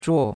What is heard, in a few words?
"zhuo4", chinois; Cours de Chinois